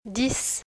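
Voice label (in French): "dix", French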